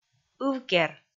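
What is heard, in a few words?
"ыв кер", Komi, female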